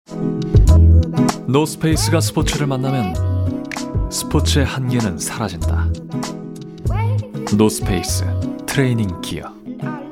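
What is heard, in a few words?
Korean male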